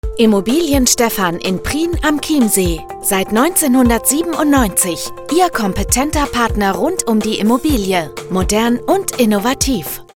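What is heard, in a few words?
german real estate, Female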